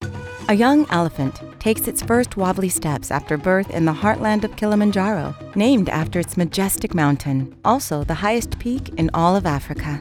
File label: Television Documentary; Africas Pride